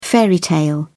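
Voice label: "fairy tale", British English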